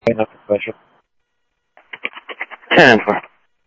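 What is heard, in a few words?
Sheriff Lake Patrol, scanner audio trunk; ThompsonPeakScot; MCSO Lakes 1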